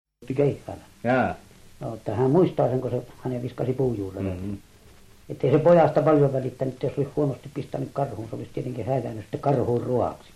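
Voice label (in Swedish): Övertorneå Norrbotten, dialekter; Folkminnen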